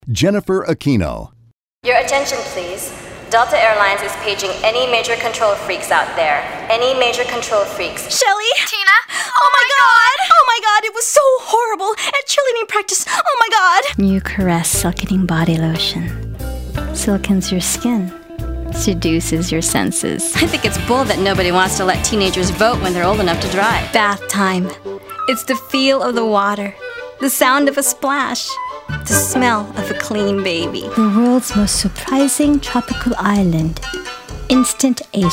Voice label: Voiceover